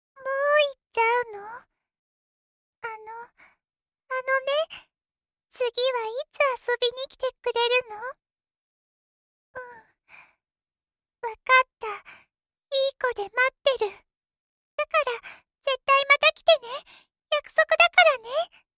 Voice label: female